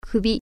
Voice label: "neck", Japanese